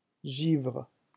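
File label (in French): "givre", French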